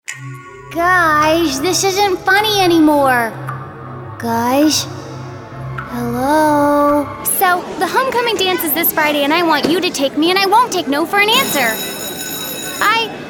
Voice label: Animation